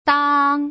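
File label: "dang", Mandarin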